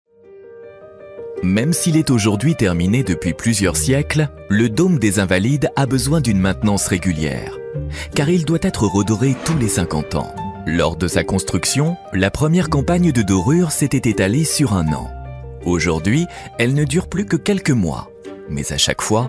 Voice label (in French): documentaire, voix off, homme; LES TOITS DE PARIS UN PATRIMOINE REVELE élégant